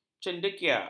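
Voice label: "cendekia", Malay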